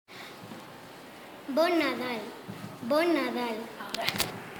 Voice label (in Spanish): Merry Christmas, Spanish Castellano